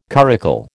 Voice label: "curricle", American